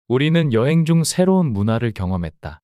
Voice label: 문장 남자

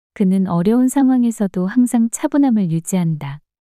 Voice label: 그는 어려운 상황에서도 항상 차분함을 유지한다 문장 여자

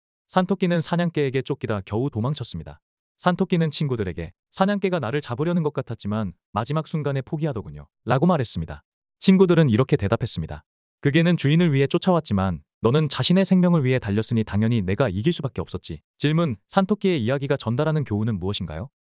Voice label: 이야기 남자; 산토끼와 사냥개

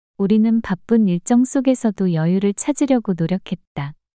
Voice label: 문장 여자; 우리는 바쁜 일정 속에서도 여유를 찾으려고 노력했다

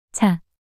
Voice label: "차" 단어 여자

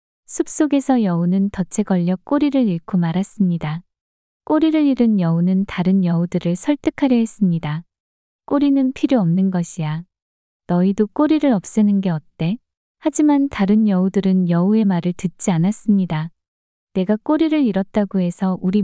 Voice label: 이야기 여자; 여우와 꼬리

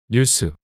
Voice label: "뉴스" 단어 남자

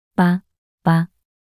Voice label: "마마" 낱소리 여자

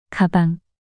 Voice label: "가방" 단어 여자

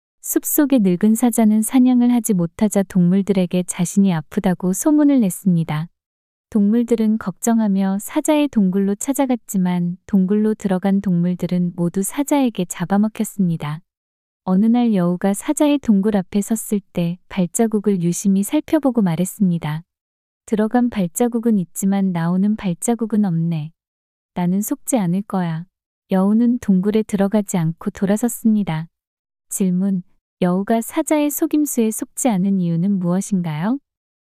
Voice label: 이야기 여자; 사자와 동물들의 발자국

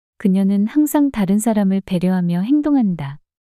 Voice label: 그녀는 항상 다른 사람을 배려하며 행동한다 문장 여자

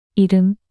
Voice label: "이름" 단어 여자

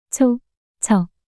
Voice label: 초처 낱소리 여자

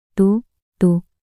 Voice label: "노노" 낱소리 여자

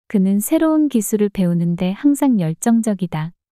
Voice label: 그는 새로운 기술을 배우는 데 항상 열정적이다 문장 여자

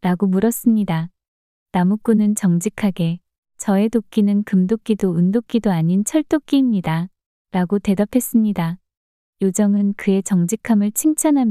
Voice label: 요정이 나무꾼에게 이야기 여자

